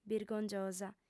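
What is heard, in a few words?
"birgongiosa", female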